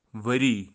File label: "wari", Kashmiri, year; kashmiri dictionary